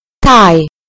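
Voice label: "tie", British English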